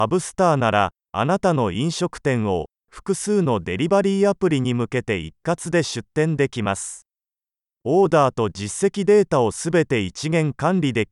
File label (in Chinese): 日语配音